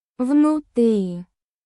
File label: Russian, Russian phrases for everyday conversation